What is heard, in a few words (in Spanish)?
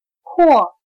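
"阔", chino mandarin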